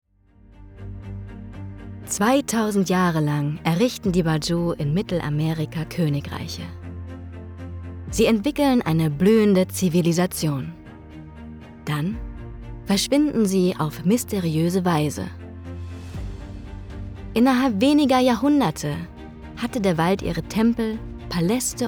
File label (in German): doku, Sprecherin; neue Musik